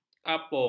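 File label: "kapung", Malay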